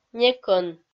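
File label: "некӧн", Komi-Zyrian, female; kpv audio dict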